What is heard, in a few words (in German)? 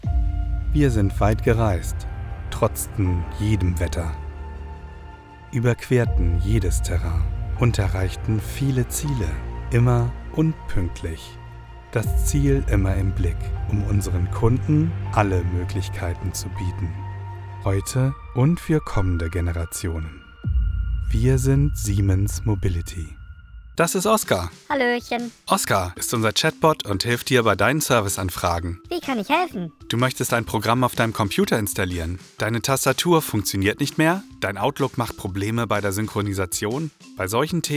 Corporate, Voiceovers DE; Corporate Demo; Commercial